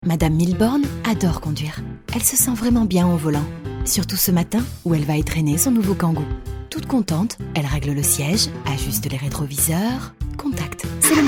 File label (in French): INSTITUTIONNEL Voix rassurante narrative; Renault